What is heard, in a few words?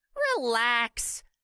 voice relax; monomeow